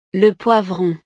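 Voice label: "le poivron", French; verduras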